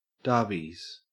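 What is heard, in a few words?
"darbies", Australian English; pronunciations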